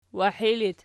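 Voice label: "wahi" locative, Archi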